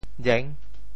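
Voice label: "jeng6", Teochew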